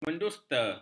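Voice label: "mendusta", Malay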